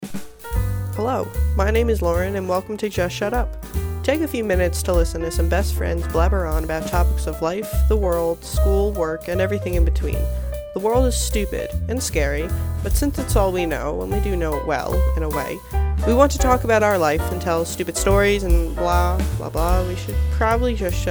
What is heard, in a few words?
podcast trailer w music; LIHSA Student Podcasts